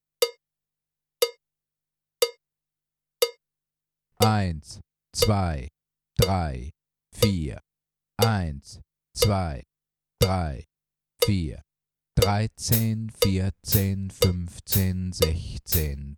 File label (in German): 16tel zaehlen (deutsch)